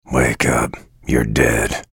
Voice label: chatter positive; bjblazkowicz; frag